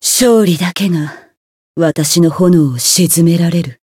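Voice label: Voice win Japanese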